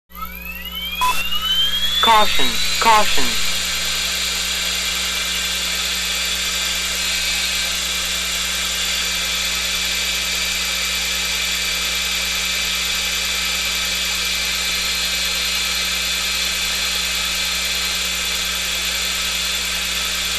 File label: Betty Electronics, voice correction computer